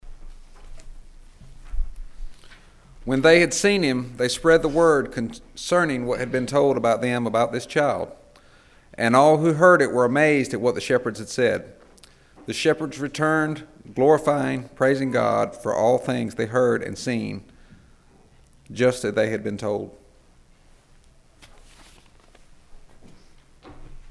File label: narration; Kipling United Methodist Church